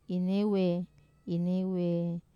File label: "newe", Wamesa